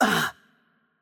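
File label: Hungarian hurt light voice; bloom minigame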